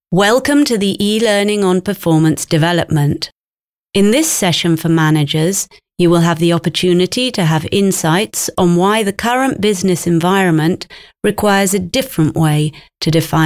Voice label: inglese

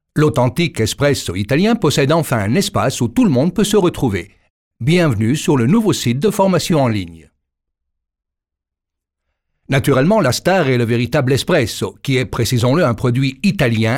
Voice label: lingua francese uomo

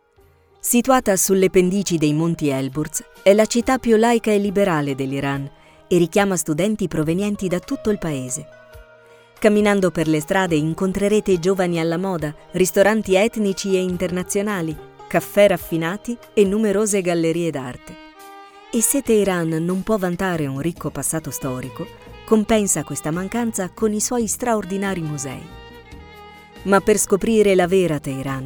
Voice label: documentari

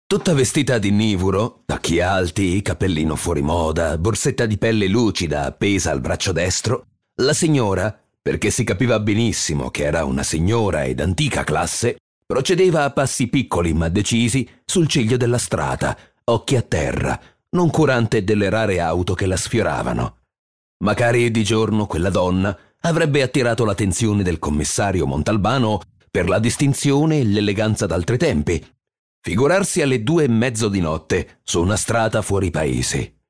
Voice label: Audiolibri

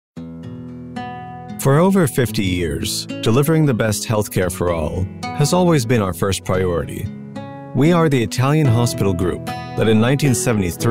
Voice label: inglese, voci straniere

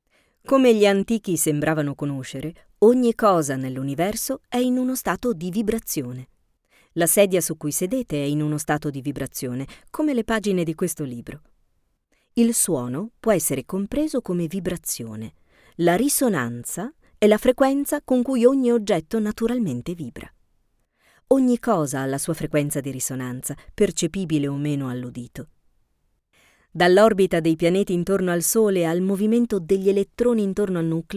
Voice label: audiolibri istituzionali

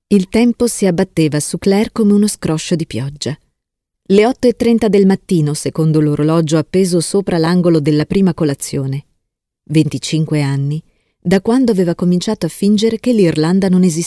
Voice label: audioibri

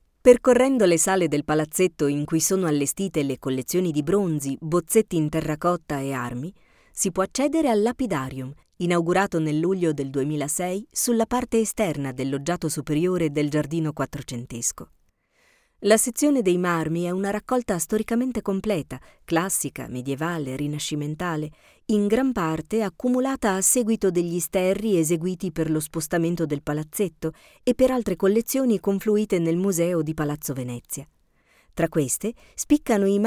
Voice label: audioguide

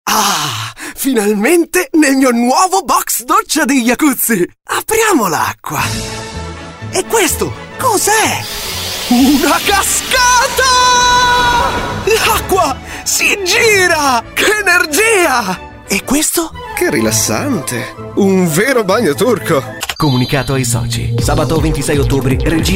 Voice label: spot nazionali

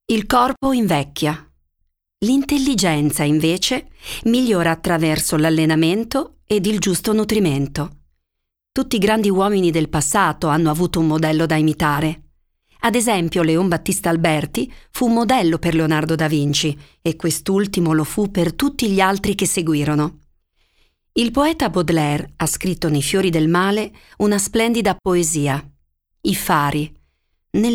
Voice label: audioibri

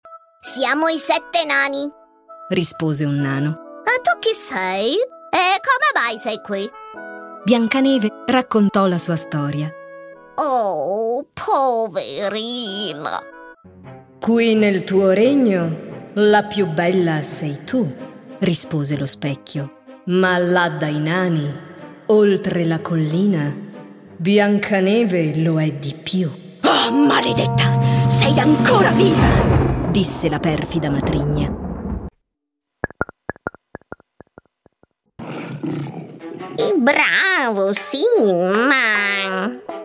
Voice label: audiolibri bambini

